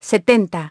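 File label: "seventy", English; iOS 4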